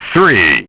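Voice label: "three", English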